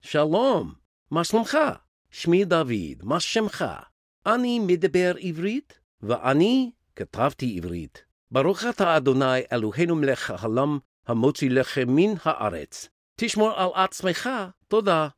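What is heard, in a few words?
Hebrew, Accents & Dialects; Voice, Acting & Singing samples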